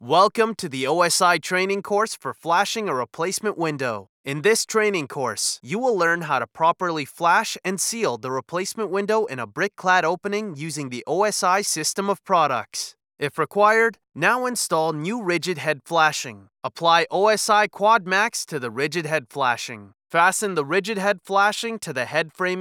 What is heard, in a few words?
english canadian e-learning